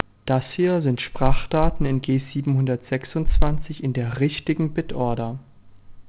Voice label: G726 correct bitorder decoded